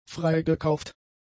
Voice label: "freigekauft", German; adjectives